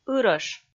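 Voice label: "ырӧш", Komi, female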